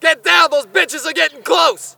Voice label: spot; bf3